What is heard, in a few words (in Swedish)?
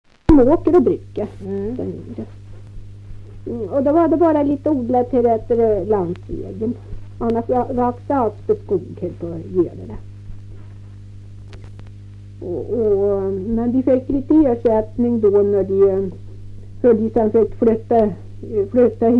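Kvistbro Närke, dialekter; Folkminnen; Svenska sagor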